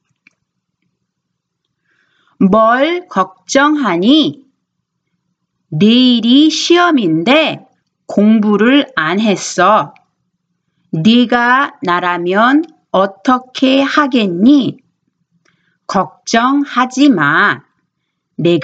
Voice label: Conversation